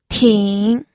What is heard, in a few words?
"ting3", pinyin